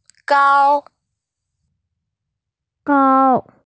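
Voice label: "gao1", Chinese, female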